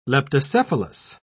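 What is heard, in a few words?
"leptocephalus", British English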